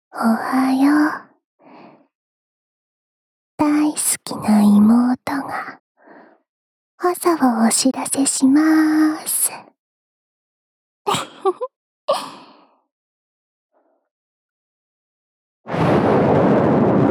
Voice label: スマホ用目覚ましボイス; SE有り

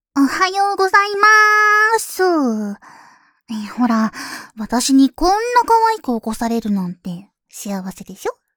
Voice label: アラームボイス

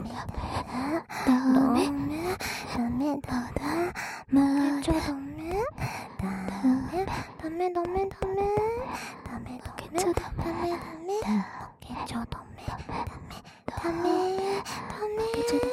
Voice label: 両耳ダメダメ囁き責め; 汎用性抜群オナサポ素材編

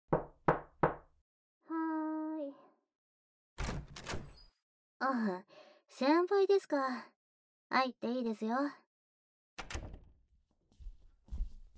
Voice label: 怒りながらデレる, ASMR

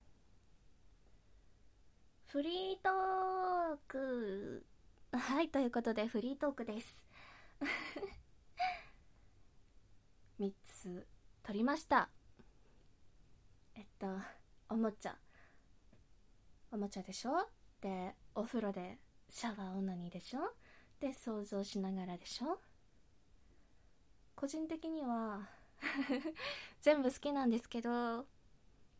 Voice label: フリートーク

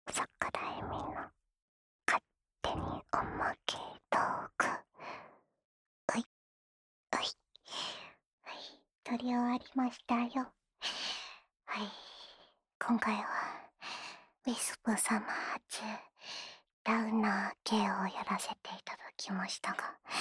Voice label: フリートーク; フリートーク前編

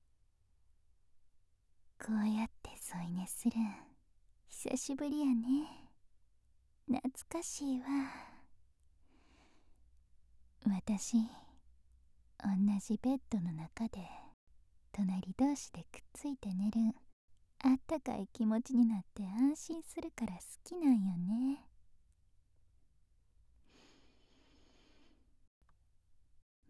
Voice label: おむつ安眠支援音声 京都弁お姉さんの甘やかし添い寝

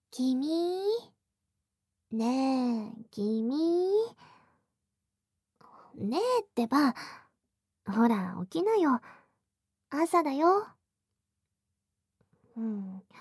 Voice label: 目覚ましアラーム, SEなし; エミリー

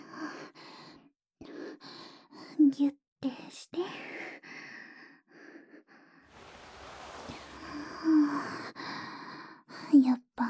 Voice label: 耳元で匂い嗅がれながらすきすきさ囁きループ; ループ音源

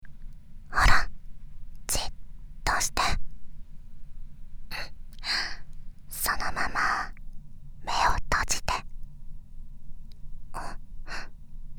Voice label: ご褒美ささやき; 一緒に作業しよ？

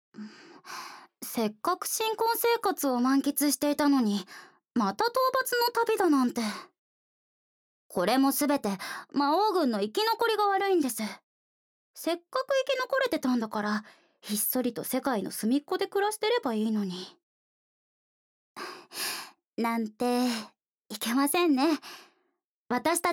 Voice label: ボイスオンリー, ASMR; 結ばれた２人

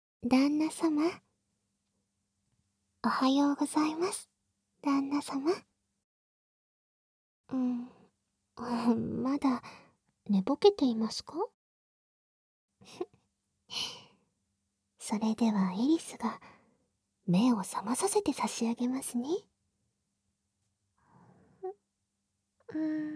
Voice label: ラブラブ目覚ましボイス; エリス

